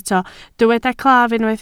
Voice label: "claf", Welsh